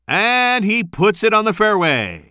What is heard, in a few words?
commentator